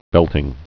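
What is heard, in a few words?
"belting", spoken word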